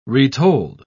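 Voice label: "retold", British English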